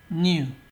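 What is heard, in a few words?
"new", Nigerian Pidgin, male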